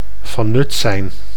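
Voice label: "van nut zijn", Dutch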